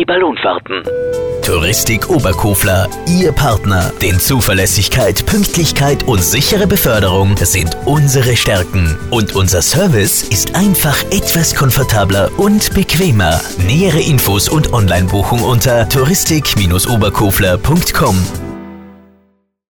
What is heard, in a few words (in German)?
radiospot transfers aller art; TOURISTIK OBERKOFLER